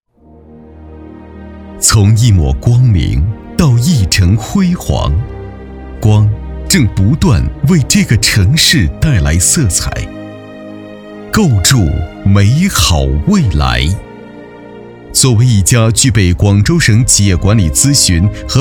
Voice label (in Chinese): 男-企业宣传-大气浑厚; 广州咨询企业